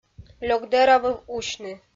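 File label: "лёк дӧра выв усьны" Komi, female; kpv audio dict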